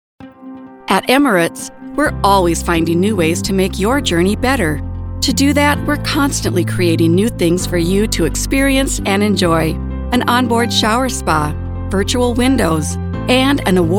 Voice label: CORPORATE